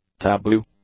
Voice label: "taboo", English; adjectives